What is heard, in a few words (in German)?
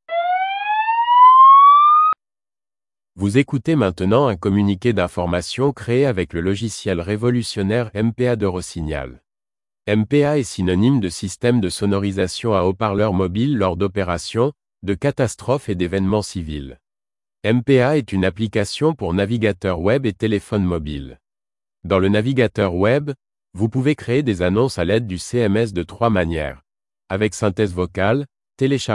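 Infodurchsage, French; MPA-App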